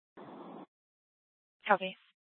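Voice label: police department dispatch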